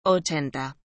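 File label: "ochenta", Spanish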